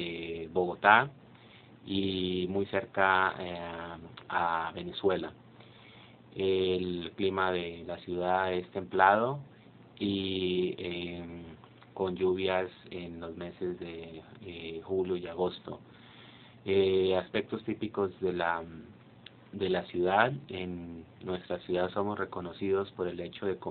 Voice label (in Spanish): Colombia Bucaramanga Male